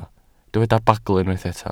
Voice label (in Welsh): "bagl", Welsh